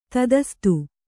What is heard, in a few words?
"ತಥಾಸ್ತು", Kannada